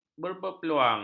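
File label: "berpeluang", Malay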